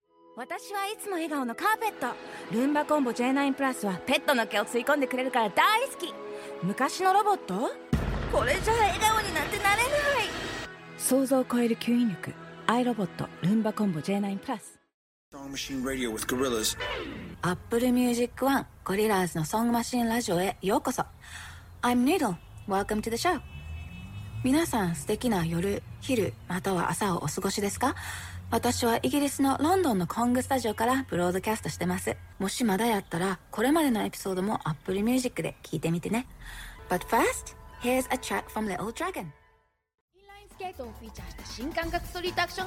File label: Japanese